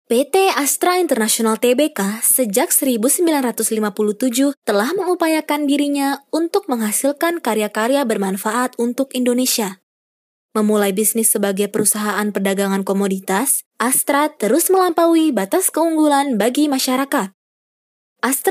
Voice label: CORPORATE

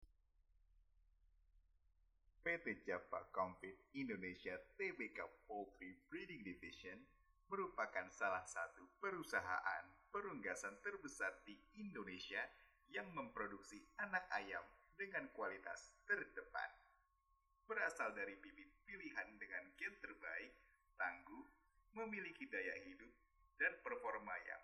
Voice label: FORMAL BAHASA INDONESIA; SUMMER VOICES

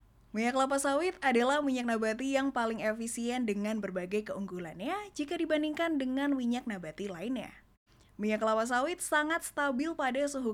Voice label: Formal Indo, voiceover

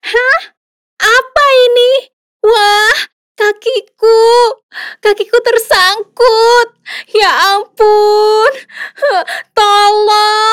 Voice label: SAMPEL KARAKTER INDONESIA

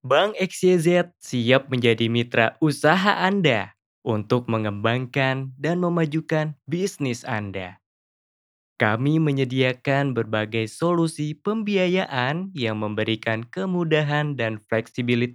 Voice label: FORMAL INDONESIA

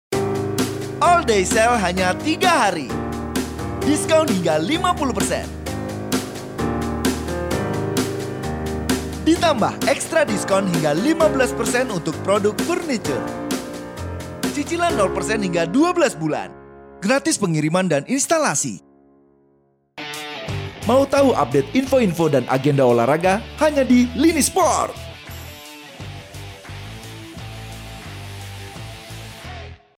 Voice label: Indonesian casual formal character ads

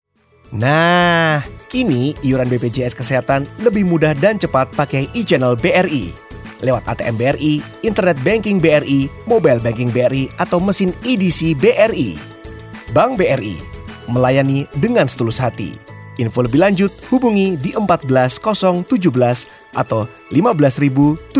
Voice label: INDO CASUAL

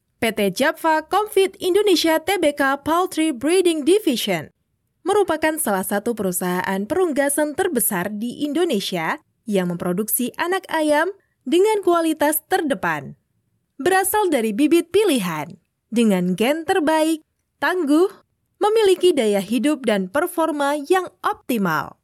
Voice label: Formal Indo, voiceover

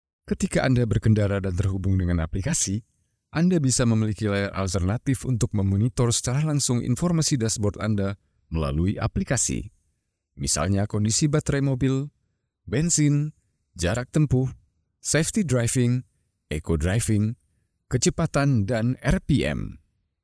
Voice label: INDONESIA CASUAL

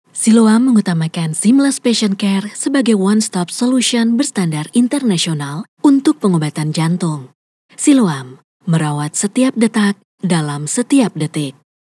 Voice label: FORMAL INDONESIA

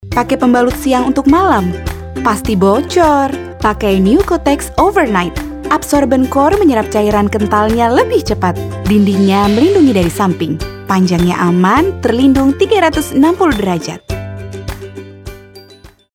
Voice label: Casual

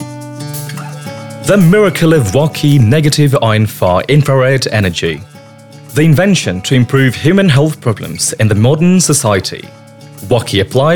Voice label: English formal

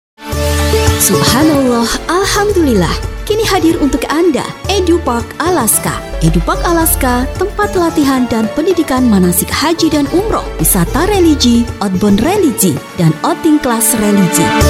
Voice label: CASUAL INDO

